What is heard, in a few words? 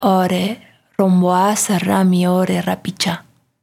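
Guarani Female Vox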